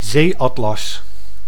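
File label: "zeeatlas", Dutch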